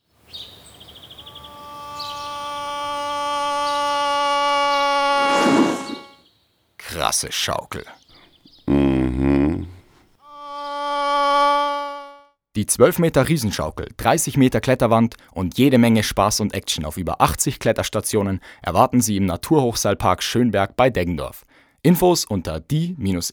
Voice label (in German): Werbung; Erlebnis Akademie